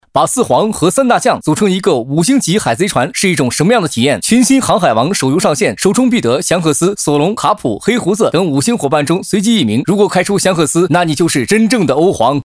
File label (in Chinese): 游戏解说手游配音